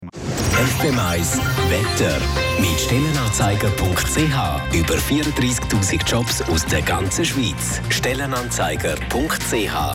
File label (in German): Radio Spot; StellenAnzeiger